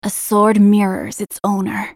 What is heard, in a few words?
move, character voices english; Riven